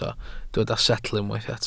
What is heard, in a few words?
"setl", Welsh